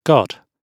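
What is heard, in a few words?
"god", British English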